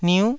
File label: "niu9", taiwanese